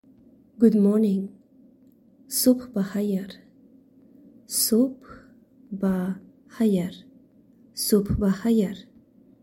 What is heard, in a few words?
Good Morning, Tajik